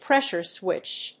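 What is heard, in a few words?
"PRESSURE SWITCH", English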